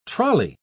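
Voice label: "trolly", British English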